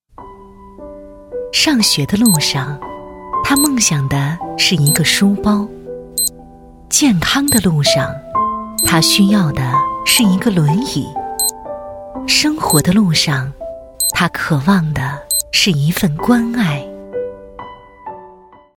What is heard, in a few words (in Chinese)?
公益 样音 女